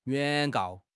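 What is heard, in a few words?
"原告", Mandarin Chinese; HSK6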